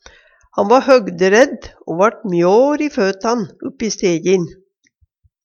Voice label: mjår, Numedalsmål

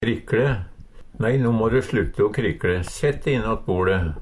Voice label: krikkle, Numedalsmål; Uttrykk

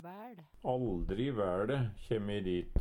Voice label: vael, Numedalsmål; Substantiv hokjønn

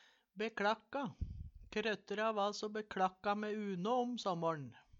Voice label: bek Lakka, Numedalsmål; Ordet er ubøyeleg.

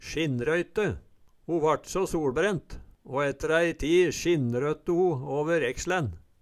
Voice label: "sjinnrøyte", Numedalsmål; æi ti sjinnrøtte ho åver ekslæn

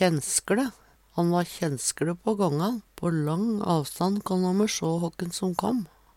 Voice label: kjennskLe, Numedalsmål

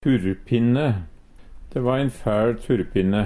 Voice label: "turrpinne", Numedalsmål; De va ein fæL turrpinne!

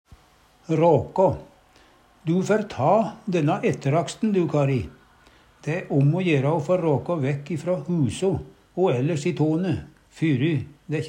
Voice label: raka, Numedalsmål

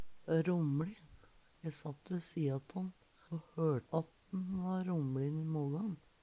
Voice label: romlin, Numedalsmål; Adjektiv; Veggli

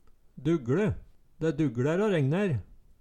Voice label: "dugLe", Numedalsmål

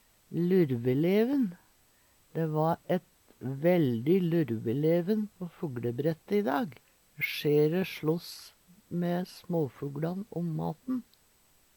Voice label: "lurveleven", Numedalsmål

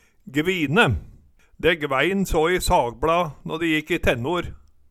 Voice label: gvine, Numedalsmål; De gvæin så i sagbLa nå de jikk i tennor

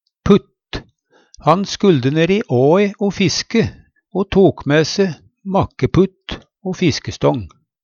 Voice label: putt, Numedalsmål; Han skulde ne'i åe o fiske o tok mæ se makkeputt o fiskestång.; Substantiv hankjønn; Hushald, mat, drikke

